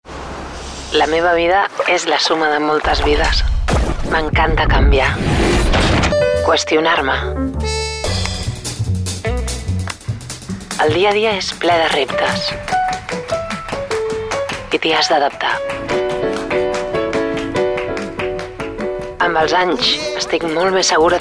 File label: female catalan car comercial; ideasonora